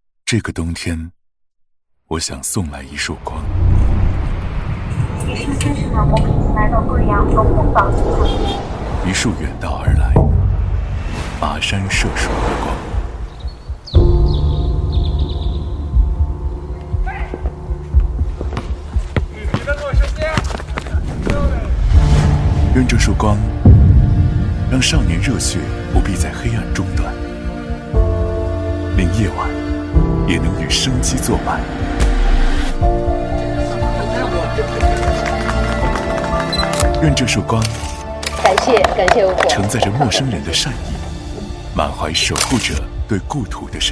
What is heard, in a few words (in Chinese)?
男,讲述类情感故事; 欧普照明大山里的微光